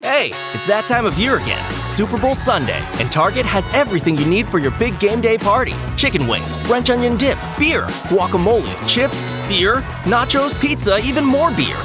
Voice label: Commercial